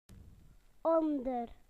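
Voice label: "under", British English; PictureDictionary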